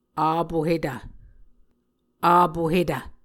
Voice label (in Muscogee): "apohetv", Muscogee